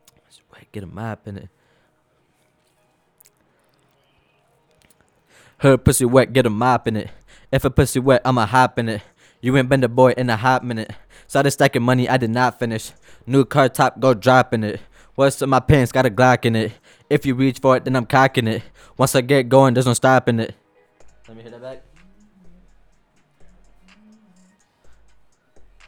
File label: recordin Mic Input; flstudio; Vocals